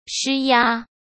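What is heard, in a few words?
"施压", Mandarin Chinese; chinese flashcards; hsk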